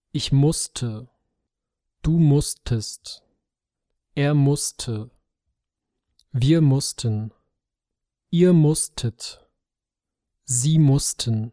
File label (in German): Imperfekt müssen, duits